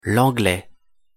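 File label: "l'anglais", French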